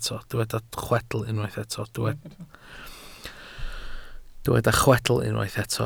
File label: "chwedl", Welsh